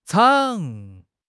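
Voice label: "zhang3", Chinese; HSK2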